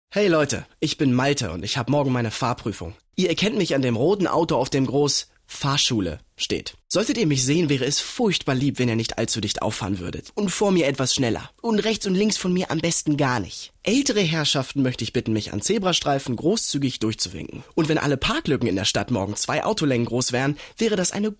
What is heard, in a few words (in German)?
funkspot; radiozentrale